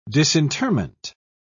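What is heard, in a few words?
"disinterment", English, British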